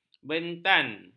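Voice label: "bentan", Malay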